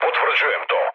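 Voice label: Serbian player killed enemy mood low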